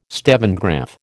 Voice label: "stevengraph", American